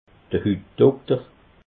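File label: "hutdokter", Alsatian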